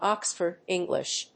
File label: "Oxford English", English